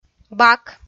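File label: "бак", Komi-Zyrian, female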